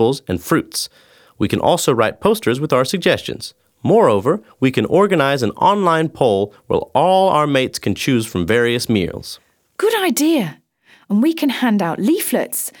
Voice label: Children are talking about their school cafeteria